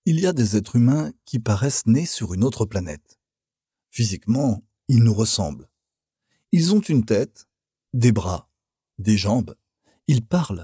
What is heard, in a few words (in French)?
voix masculine; Demo